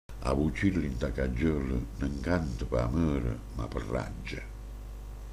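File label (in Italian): "gabbia", Manfredonia dialect; A la fìgghja vertevöse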